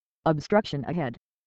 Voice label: E Voice Female